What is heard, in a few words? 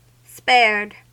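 "spared", US English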